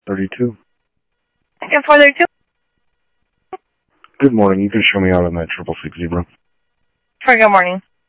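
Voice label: Sheriff East County, scanner audio; MCSO - EAST; South Scottsdale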